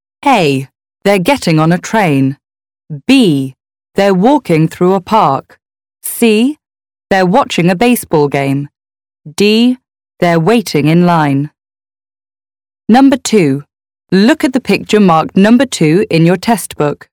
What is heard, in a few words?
British F, British English